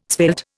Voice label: "svelte", Indian

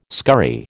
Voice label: "scurry", American

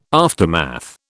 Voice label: "aftermath", British

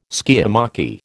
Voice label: "skiamachy", American

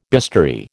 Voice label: "bistoury", American

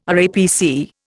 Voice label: "RAPC", Indian

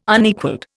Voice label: "Unequalled", Indian

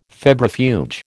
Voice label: "febrifuge", American

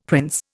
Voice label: "prince", Indian; Dictionary

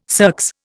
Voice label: "circs", Indian

